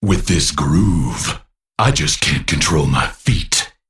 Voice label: Male Crystal Golem Idle